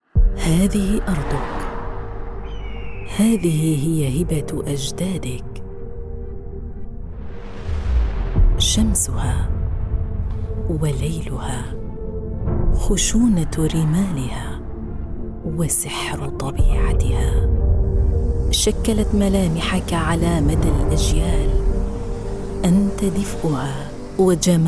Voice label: Modern standard Arabic Ads land rover